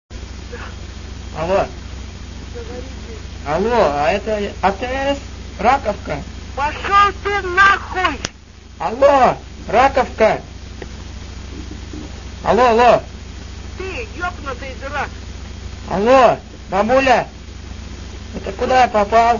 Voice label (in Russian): Бабка, Звонок на АТС